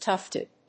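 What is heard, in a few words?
"tufted", English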